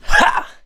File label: melee swing, mechanic, survivor, voice